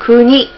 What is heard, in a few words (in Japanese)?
"kuni", Hiragana